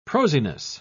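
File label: "prosiness", British English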